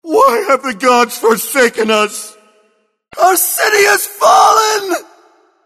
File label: entrance eng; God of War Collection Volume 2